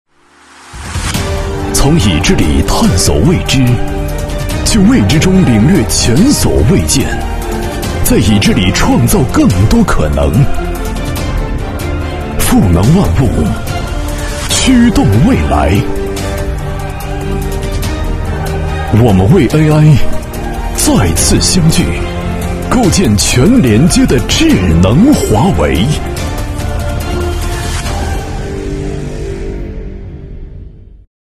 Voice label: 男 AI宣传片 高端大气; 华为; 厚重有力 大气浑厚

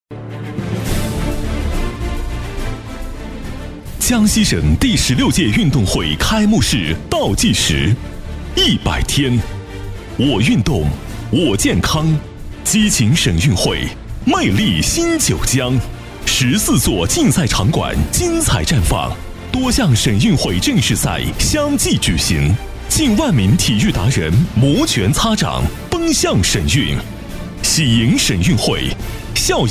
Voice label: 男 运动会开幕式 激情有力; 磁性质感 成熟厚重

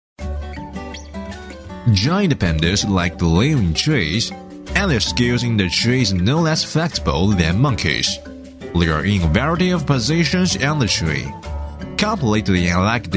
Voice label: 男, 英语配音, 纪录片讲述; 语言全能 纪实记录; PANDA

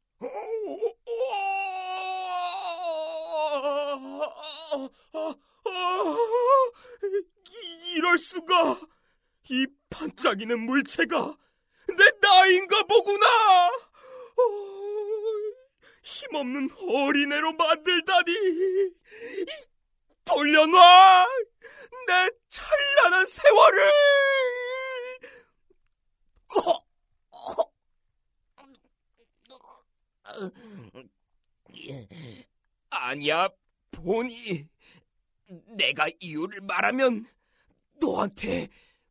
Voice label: 韩语男声 60多岁的怪才科学家

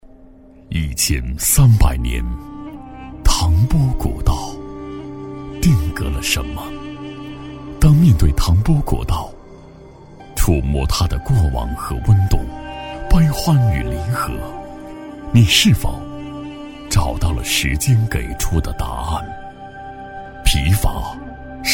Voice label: 男 纪录片, 大气浑厚; 唐蕃古道

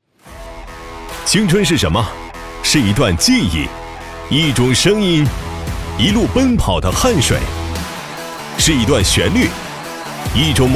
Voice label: 男, 走心, 青春 朝气阳光风格; 年轻浑厚 年轻时尚

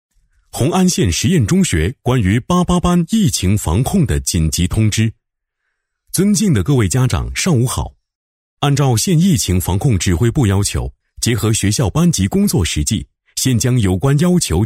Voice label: 男 新闻专题 疫情通知 客观稳重; 大气主流; 大气浑厚

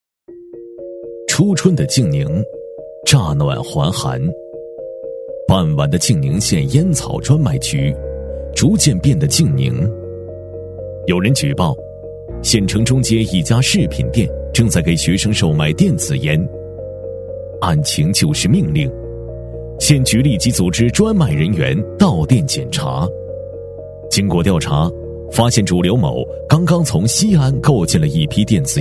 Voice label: 男 案件分析解说 纪实解说

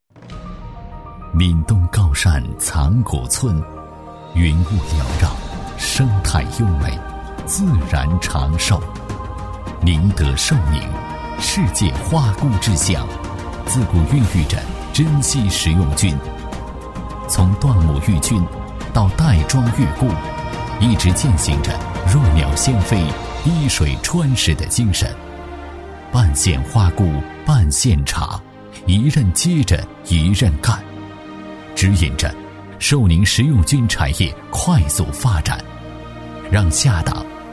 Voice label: 男 城市宣传片 大气; 记录讲解; 大气浑厚; 宁德寿宁

